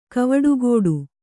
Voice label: "ಕವಡೆಗಟ್ಟು", Kannada

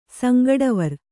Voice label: "ಸಂಗಡವರ್", Kannada

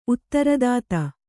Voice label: "ಉತ್ತರದಾತ", Kannada; uttaradāta; noun

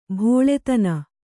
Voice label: "ಬಲ್ಲತನ", Kannada

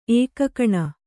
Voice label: "ಏಕಾಕಿನಿ", kannada

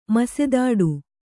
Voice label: "ಮಸೆದಾಡು", Kannada

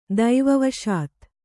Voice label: "ದೈವವಶಾತ್", Kannada

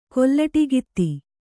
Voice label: "ಕೊಲ್ಲಟಿಗಿತ್ತಿ", Kannada

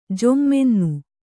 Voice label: "ಜೊಮ್ಮೆನ್ನು", Kannada

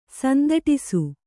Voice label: "ಸಂದಟಿಸು", Kannada

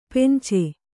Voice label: "ಪೆಂಚೆ", kannada

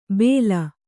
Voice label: "ಭೇಲ್", Kannada

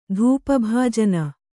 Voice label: "ಧೂಪಭಾಜನ", Kannada

